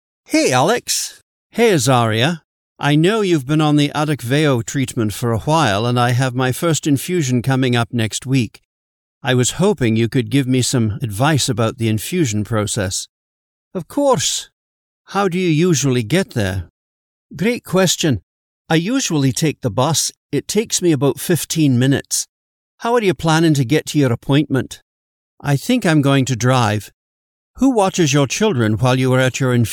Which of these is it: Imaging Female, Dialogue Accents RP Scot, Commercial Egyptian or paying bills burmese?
Dialogue Accents RP Scot